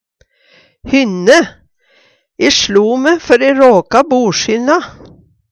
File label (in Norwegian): hynne, Numedalsmål; E slo me i huvu, før e råka borshynna.; borshynna betyr hjørnet på bordet